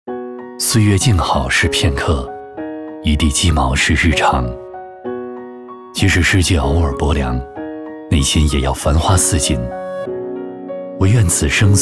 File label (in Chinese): 男 走心抖音风格